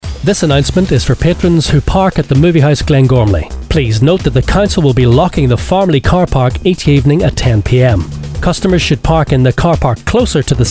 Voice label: Radio; Movie House Cinemas; Glengormley Only Ads; Car Park